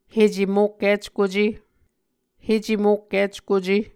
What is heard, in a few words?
"hecemokkickuce", muscogee; Mvskoke Words with Voicing Consonants; A Dictionary of Creek/Muskogee; SIL International